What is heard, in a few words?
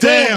"damn", expletives